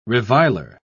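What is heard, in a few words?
"reviler", British English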